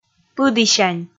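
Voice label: "пыдісянь", Komi, female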